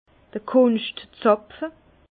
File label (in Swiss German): "kunschtzapfe", Bas Rhin; vin; bouchon compensé capsule